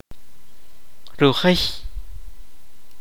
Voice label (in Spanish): "cola", Kaqchikel